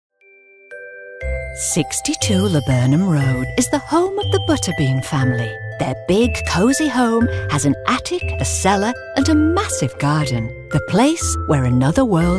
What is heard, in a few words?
Animation